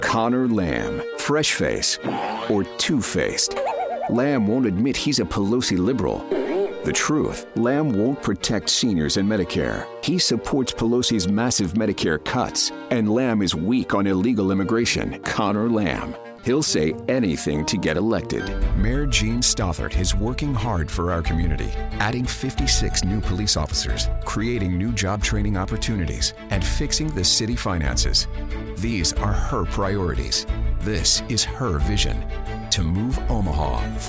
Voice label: english american political ads

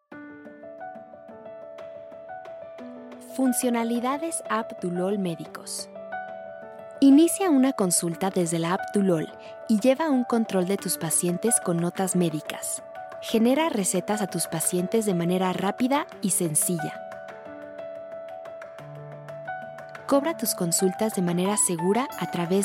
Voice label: spanish latin american medical narration; Mexico

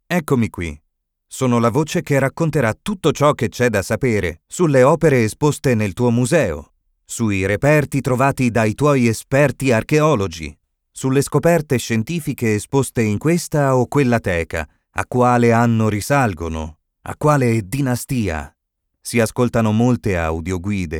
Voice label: italian documentaries

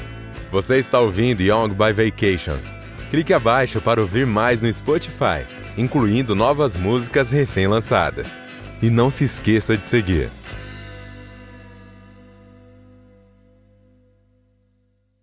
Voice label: portuguese brazilian podcasts

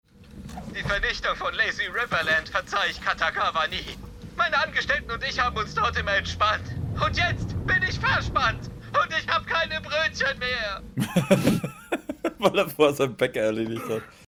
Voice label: german video games

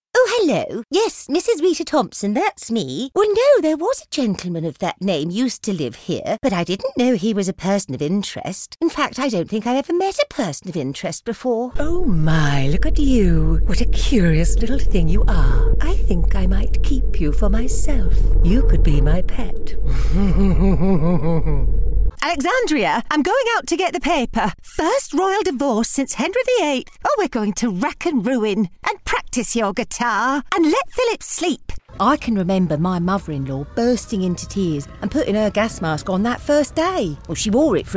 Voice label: english british others

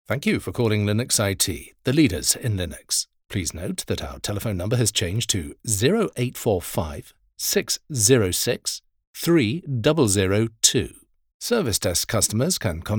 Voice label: english british ivr

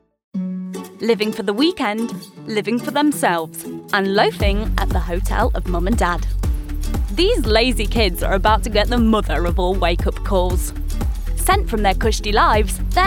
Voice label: english british documentaries

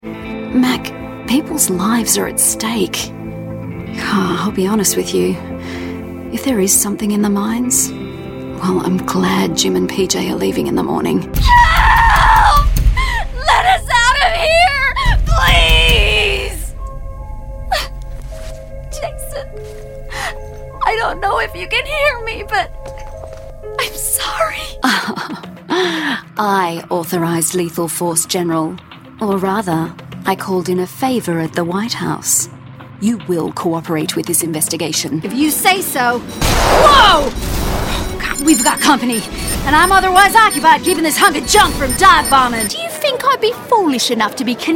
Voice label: english international video games

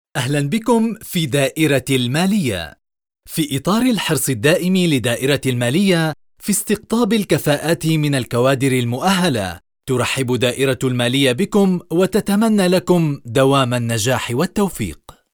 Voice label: arabic commercial

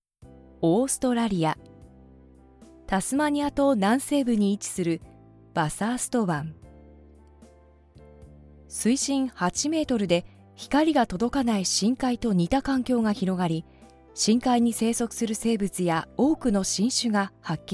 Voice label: japanese corporate videos

